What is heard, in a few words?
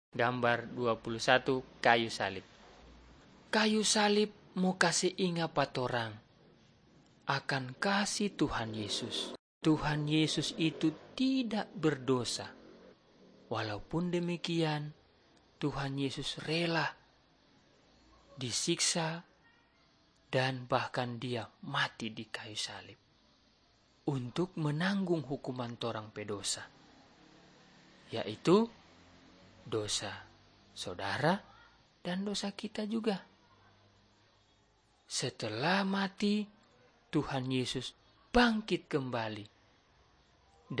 malay manado; good news